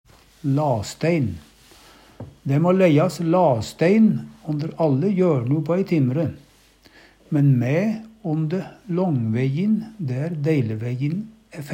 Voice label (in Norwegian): "lastæin", Numedalsmål